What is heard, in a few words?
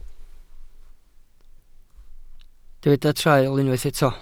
"traul", Welsh